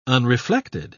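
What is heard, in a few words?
"unreflected", British English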